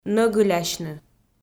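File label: "нӧгылясьны" Komi-Zyrian, female; kpv audio dict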